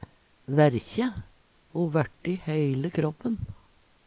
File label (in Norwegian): vaerkje, Numedalsmål; Ho værte i heile kråppen.; Verb